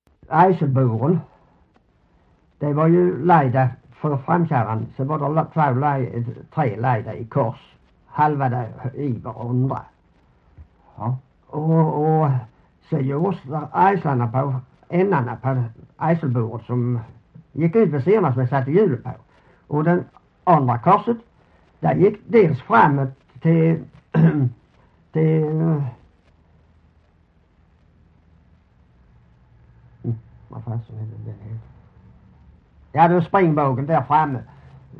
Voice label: Hörja SK, dialekter; Folkminnen; Svenska sagor

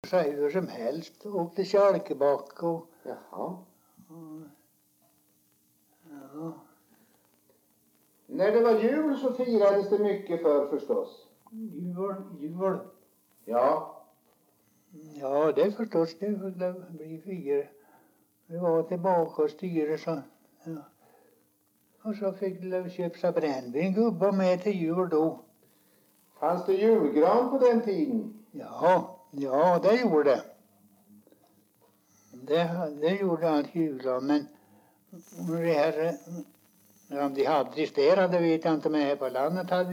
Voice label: dialekter; Folkminnen; Svenska sagor

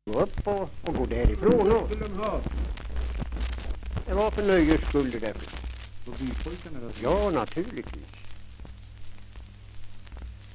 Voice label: Svenska sagor, dialekter; Folkminnen

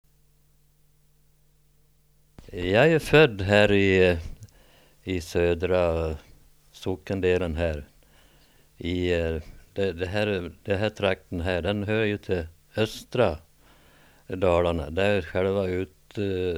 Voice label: dialekter; Folkminnen; Svenska sagor

